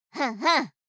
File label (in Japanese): 「うんうん」, 相づち・反応系【ポジティブ】